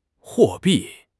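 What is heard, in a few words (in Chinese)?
"货币", Mandarin Chinese; HSK6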